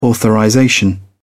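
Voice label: "authorization", British English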